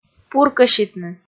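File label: "пуркӧситны", Komi, female; kpv audio dict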